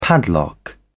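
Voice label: "padlock", British English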